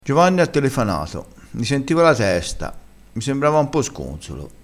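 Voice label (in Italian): "sconsolo", dialettale, crespinese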